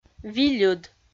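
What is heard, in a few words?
"вильыд", Komi, female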